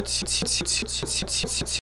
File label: dentals palatalized, Romanian